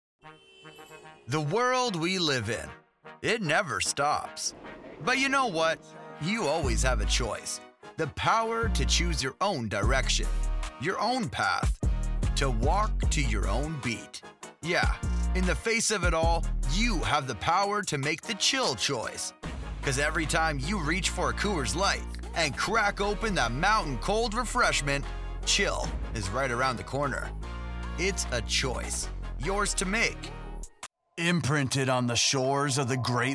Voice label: VO w Music